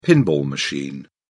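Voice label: "pinball machine", British English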